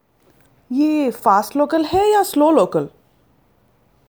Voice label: Fast Slow; Local

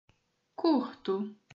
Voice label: "curto", portuguese

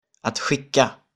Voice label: "att skicka", Swedish

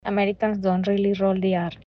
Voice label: American Rolling r Properly

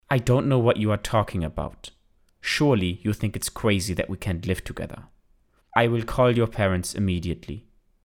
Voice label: English German Accent

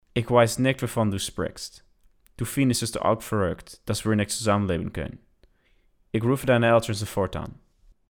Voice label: German American Accent

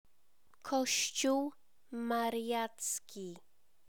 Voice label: "kosciol mariacki" Polish

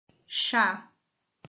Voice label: "chá", portuguese

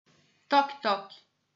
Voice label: "toc toc", portuguese

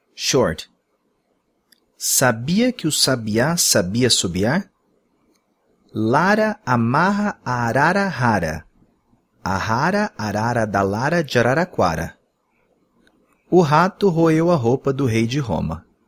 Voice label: tongue twisters; short

